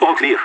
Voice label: clear, vo agents; leet